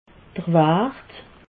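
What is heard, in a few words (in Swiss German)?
"wart", Alsatian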